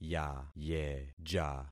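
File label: "yayeja", concatenated syllables; Affixing experiment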